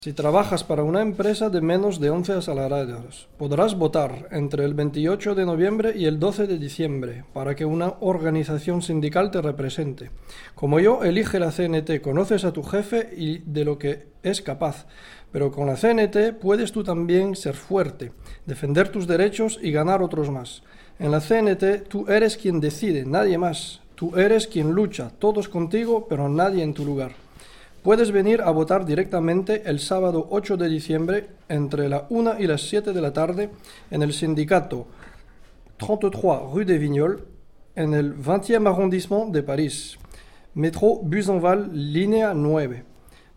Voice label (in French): election annonce espagnol; election TPE 2012; CNT